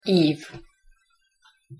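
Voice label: "iv", Hungarian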